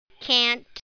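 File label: "cant", English word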